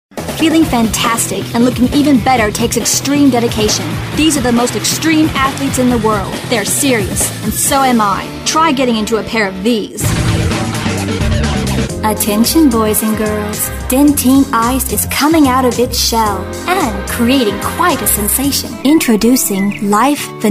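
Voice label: Female Commercial, voiceover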